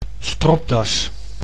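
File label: "stropdas", Dutch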